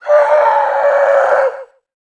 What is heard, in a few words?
orc